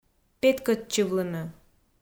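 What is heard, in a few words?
"петкӧдчывлыны", Komi, female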